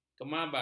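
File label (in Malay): "kemabal", Malay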